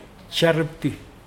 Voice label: "bury", Mokilese